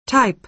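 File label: "type", American English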